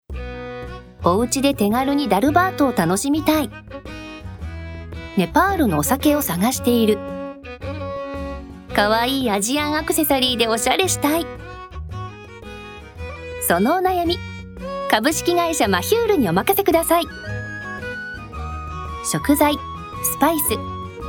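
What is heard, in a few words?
narration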